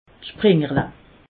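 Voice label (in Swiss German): "springerle", Alsatian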